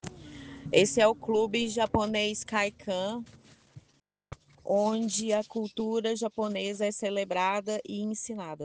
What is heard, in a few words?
Voice message